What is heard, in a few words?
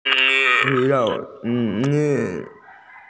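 "strawberry", Mandarin; cao3mei2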